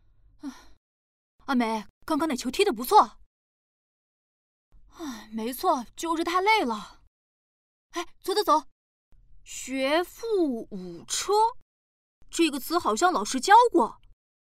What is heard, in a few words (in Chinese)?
角色大男童